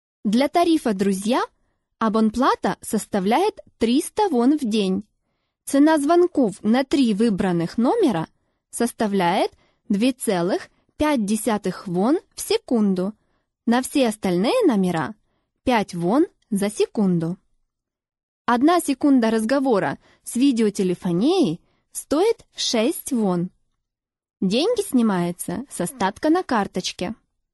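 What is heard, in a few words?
Russian, female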